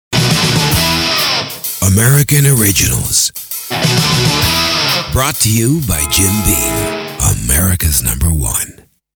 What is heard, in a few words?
Commercial; Jim Beam